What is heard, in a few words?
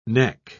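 "nek", British English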